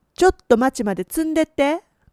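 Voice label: "tsundette", Japanese dialect, woman; hogen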